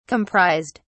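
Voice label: "comprised", English, British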